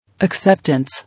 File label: "Acceptance", English